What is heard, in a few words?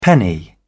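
"penny", English, British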